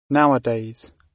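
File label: "nowadays" British; Business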